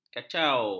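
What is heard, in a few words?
"kacau", Malay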